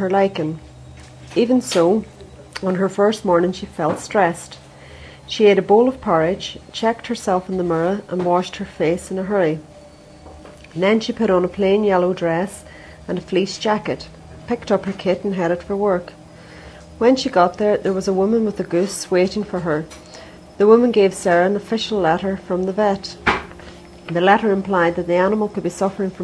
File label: north ireland